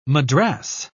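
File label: "madras", British English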